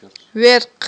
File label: "верхъ", Aghul